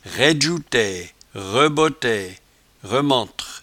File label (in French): "raidjoutaie", patois jura